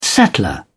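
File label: "settler", British English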